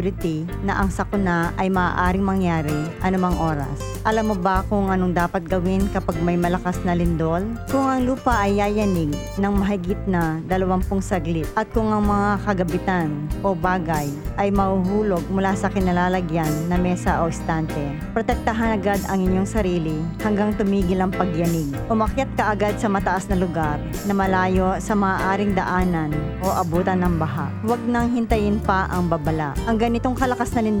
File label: earthquake tsunami Filipino; DHS; TEMCO